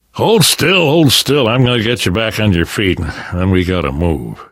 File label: revive friend, left4dead2 survivor voice namvet